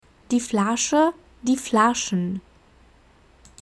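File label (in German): "die Flasche", German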